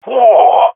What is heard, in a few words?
cheer, jungle male epic; vo; agents; Source Model Packs; Counter Strike Model Pack; CS2